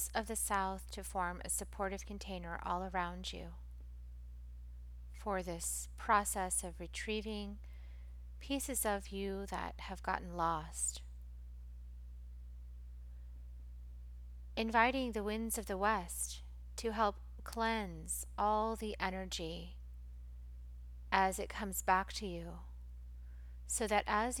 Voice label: Soul Retrieval Healing Meditation